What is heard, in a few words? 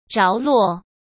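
"着落", Mandarin Chinese; chinese flashcards; hsk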